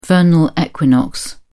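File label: "vernal equinox", British English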